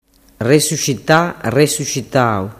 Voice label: "resuscita tau", dialettu